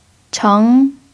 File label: "cheng2", chinese